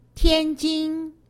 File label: "tianjin", Chinese; Survival Chinese